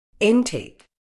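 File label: "intake", British English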